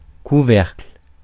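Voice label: "couvercle", French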